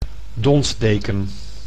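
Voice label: "donsdeken", Dutch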